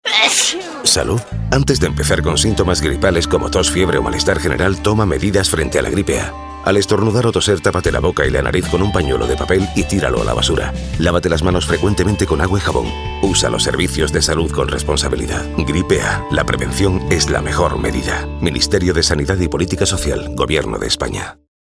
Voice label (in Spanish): CASTELLANO